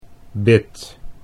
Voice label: "bit", Dutch